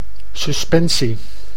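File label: "suspensie", Dutch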